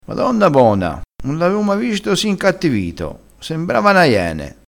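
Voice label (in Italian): "ncattivito", dialettale crespinese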